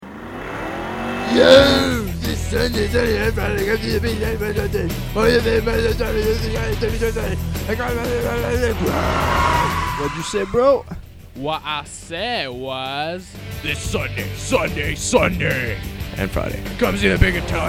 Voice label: radio commercial